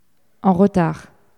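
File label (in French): "en retard" French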